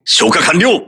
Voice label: fire doused, voice message, crew ships japanese

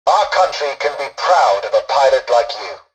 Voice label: tutorial dialogue, english; War Thunder

